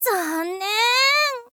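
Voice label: "zannen", Japanese, girl; voice; info girl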